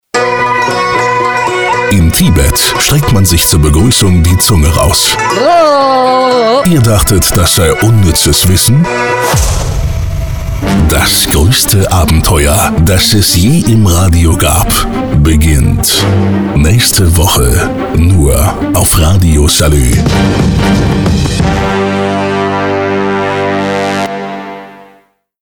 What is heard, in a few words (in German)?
Promovoice; Radio Salü